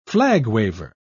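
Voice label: "flag-waver", British English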